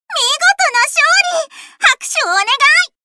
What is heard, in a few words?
Voice victory